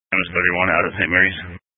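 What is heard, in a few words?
Fire Dispatch, scanner audio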